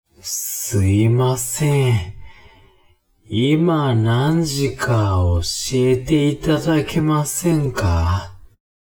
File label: man